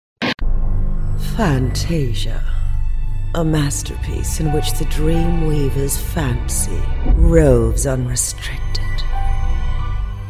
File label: Movie Trailer